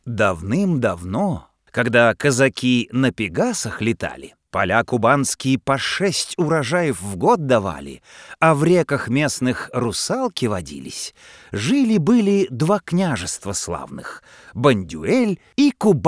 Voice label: zg skazochno rus